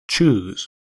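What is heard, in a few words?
"chews", American English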